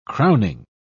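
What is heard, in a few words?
"crowning", English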